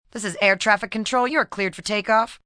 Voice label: Tower Cleared, Air Traffic Controller, female; Pa Announcement; VoiceBox